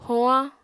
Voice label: "hoañ", apatani